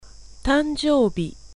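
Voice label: Japanese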